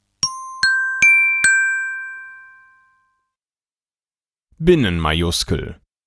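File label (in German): "Binnenmajuskel", German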